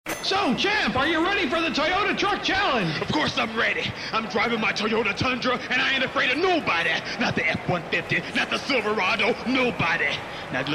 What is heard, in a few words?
Voice Demo